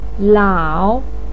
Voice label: "lao3", Chinese pinyin voice; 老三篇

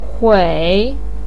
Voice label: "hui3", Chinese pinyin voice

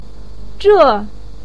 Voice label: "zhè", voice pinyin

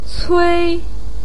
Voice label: "cui1", Chinese pinyin voice

